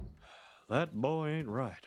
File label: boy not right